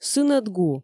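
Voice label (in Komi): сынӧд гу, Komi, female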